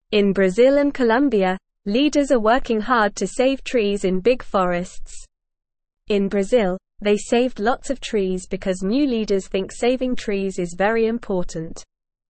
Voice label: English NORMAL Reading; English Newsroom; Leaders in Brazil and Colombia Save Trees

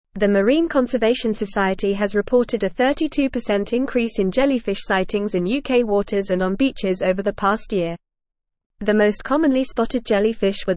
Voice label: English Newsroom Advanced FAST Reading; Rising Jellyfish Sightings in UK Waters Linked to Climate

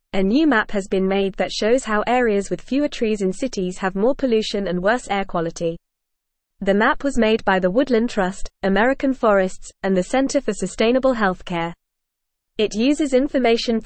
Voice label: English Newsroom Upper Intermediate FAST Reading; Interactive Map Reveals Link Between Tree Cover and

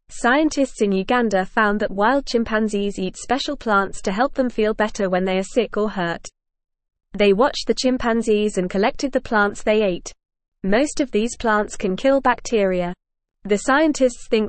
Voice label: English FAST Reading

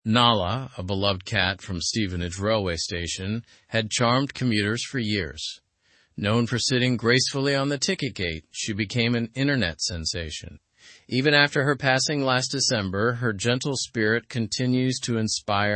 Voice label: english through news upper intermediate normal; nala s legacy a bronze statue tribute

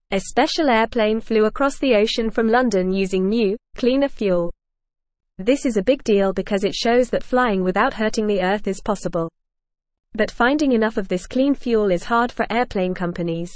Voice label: English Lower Intermediate FAST Reading; Clean Fuel for Airplanes Flying Better for Earth

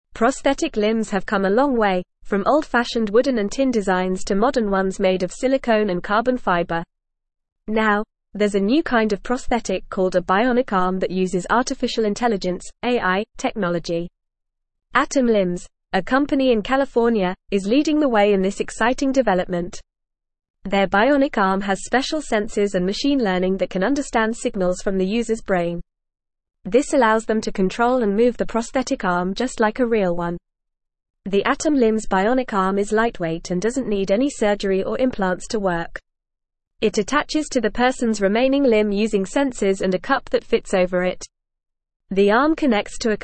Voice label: English Upper Intermediate FAST Reading; Next Gen Bionic Arm AI Sensors and Affordable Innov

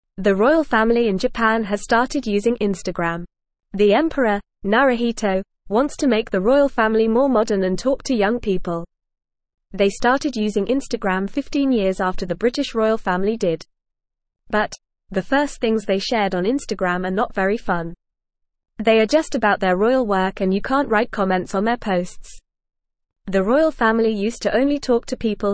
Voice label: English Beginner FAST Reading; English Newsroom; The Japanese Royal Family Joins Instagram

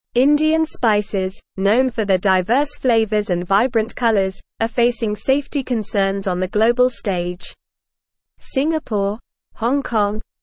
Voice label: English Newsroom Advanced NORMAL Reading; Safety Concerns Arise Over Indian Spice Exports

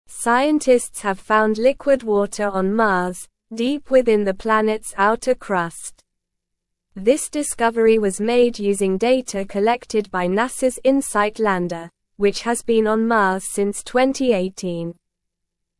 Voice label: English Upper Intermediate SLOW Reading; Discovery of Liquid Water Reservoir on Mars Revealed

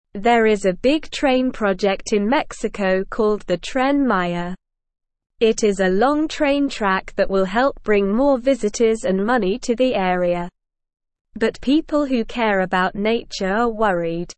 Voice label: English Lower Intermediate SLOW Reading; Big Train in Mexico Good for Some Bad for Nature; English Newsroom

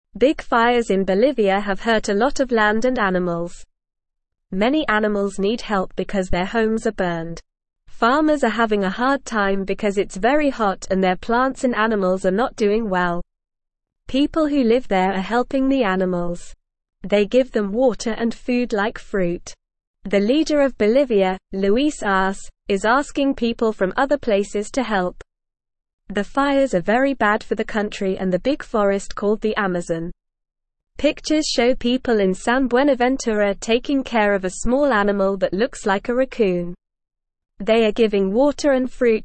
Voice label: English Beginner NORMAL Reading; Big Fires in Bolivia Hurt Land and Animals; English Newsroom

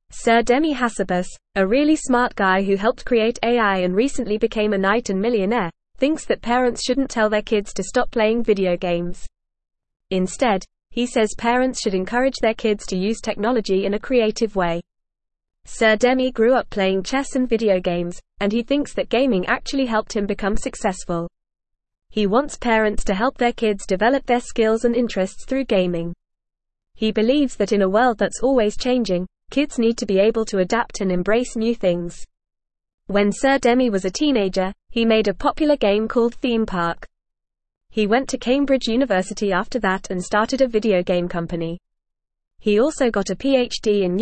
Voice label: English Upper Intermediate FAST Reading; English Newsroom Upper Intermediate; Video Games Boost Creativity in Young People

